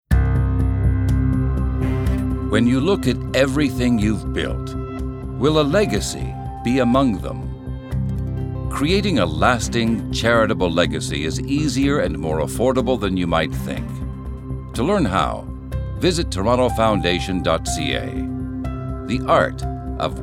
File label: Commercial